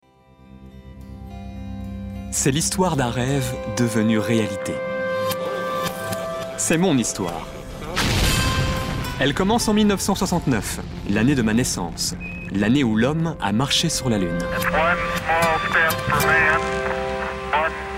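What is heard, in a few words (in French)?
French, male, commercial; voice demos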